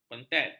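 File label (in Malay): "kontet", Malay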